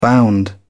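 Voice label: "bound", British English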